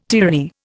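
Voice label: "tyranny", Indian English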